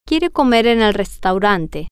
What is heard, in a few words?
he wants to eat in the restaurant, spanish, F